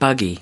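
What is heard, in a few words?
"buggy", US English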